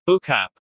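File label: "book app", English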